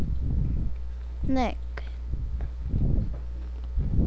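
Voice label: "neck", spoken word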